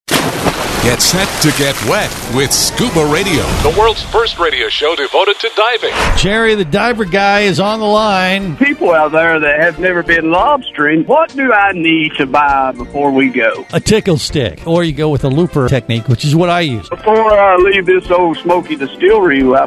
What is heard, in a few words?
Promo